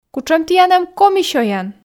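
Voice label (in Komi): кутшӧм тіян эм коми сёян, Komi, female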